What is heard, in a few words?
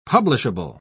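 "publishable", British English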